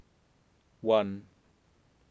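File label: "one", English, GB, male; wʌn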